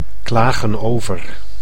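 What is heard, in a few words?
"klagen over", Dutch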